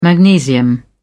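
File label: "magnesium", British English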